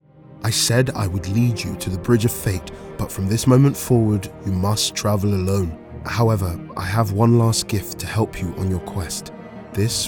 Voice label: Character